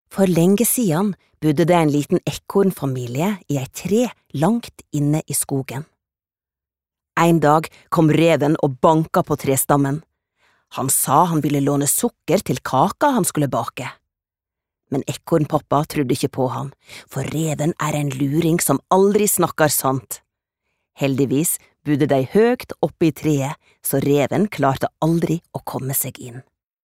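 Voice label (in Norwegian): no forteller, Norwegian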